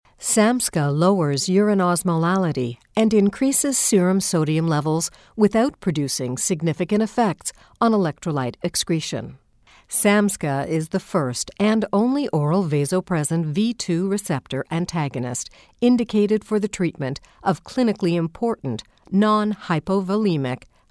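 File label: Medical Narration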